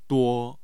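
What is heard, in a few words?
"duo", Mandarin Chinese, tone 1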